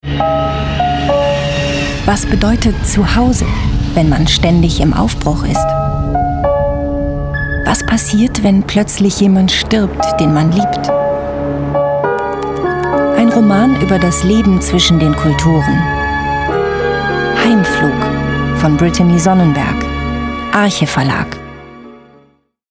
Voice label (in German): narrator Buchtrailer; Heimflug